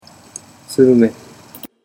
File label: "surume", Japanese